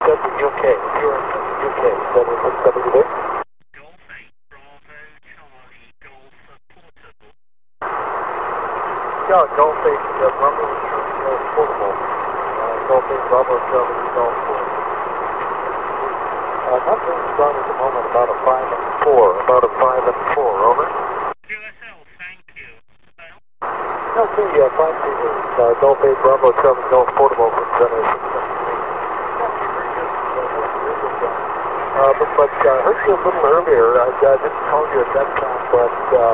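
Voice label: amateur radio contact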